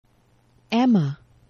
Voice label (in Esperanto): "ema", esperanto; geneva